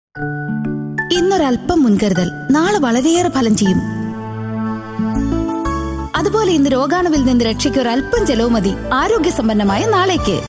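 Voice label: Malayalam female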